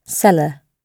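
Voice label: "cellar" British English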